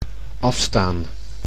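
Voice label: "afstaan", Dutch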